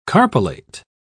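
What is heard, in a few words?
"carpellate", English, British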